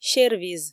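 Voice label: "сервиз", Komi, female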